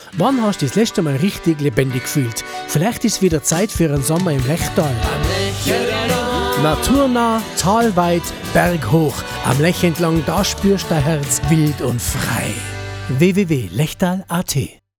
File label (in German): Werbespot; LECHWEG